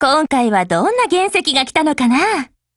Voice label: BUILD OVER JP; MP443